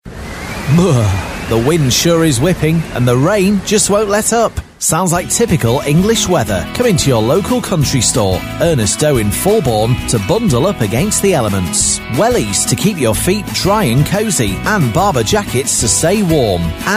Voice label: commercial voiceover; star cambridge

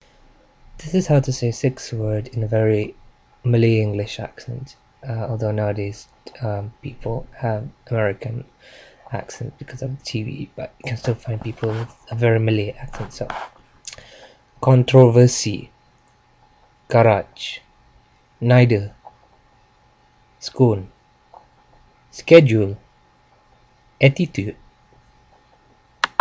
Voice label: malaysia male

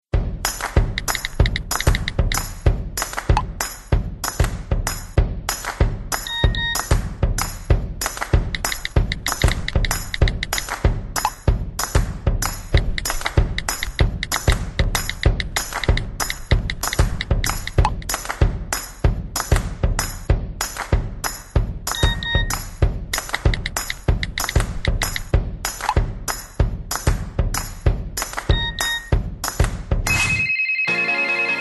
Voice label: spot anuncio locutor ingles; max factor; madrid locuciones

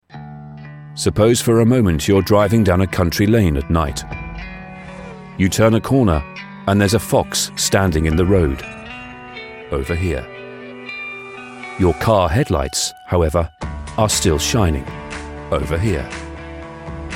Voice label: advert audi

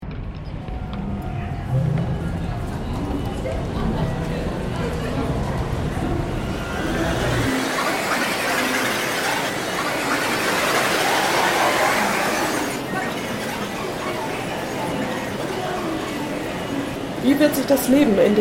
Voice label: poorly translated forecast at Osaka train station

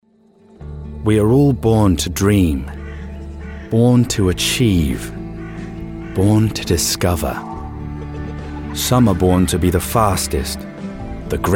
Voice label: advert; save the children

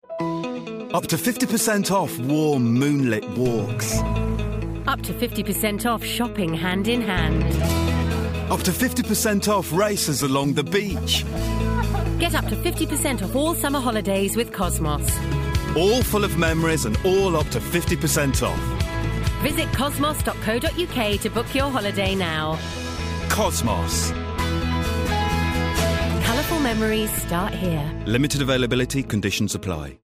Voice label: radio ad couples

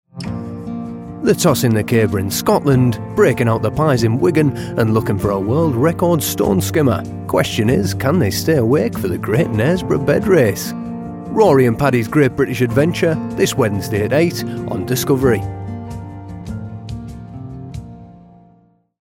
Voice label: trailer; discovery

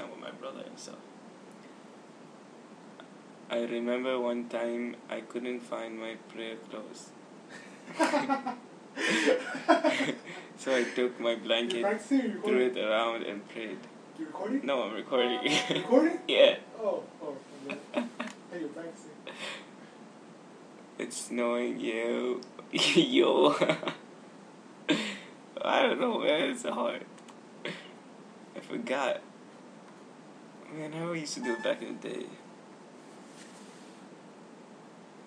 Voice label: indian accent fail

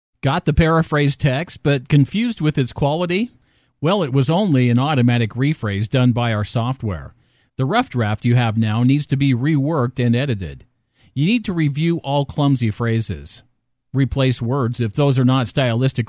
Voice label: professional voicemail greeting

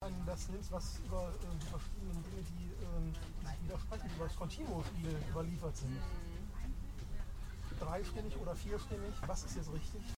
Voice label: german man speaking german on a german train; somewhere between koln mullheim and leverkusen

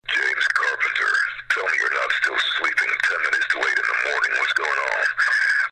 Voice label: voicemail

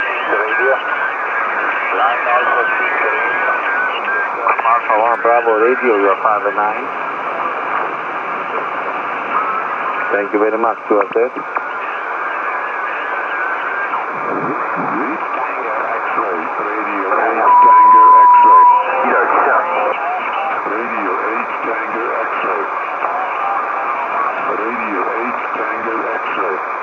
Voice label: 10m SSB

